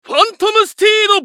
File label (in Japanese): ウィザード男; ファントムスティード